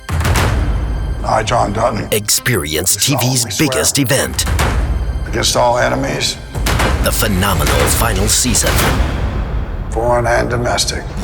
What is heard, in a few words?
Promo